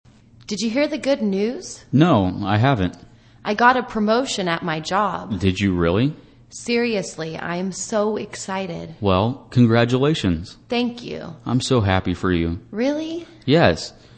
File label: english conversation Expressing joy